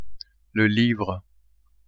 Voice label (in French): "livre", French; bureau